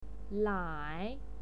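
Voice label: "lai", pinyin, third tone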